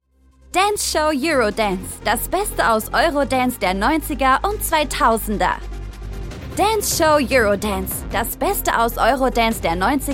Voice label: german tv shows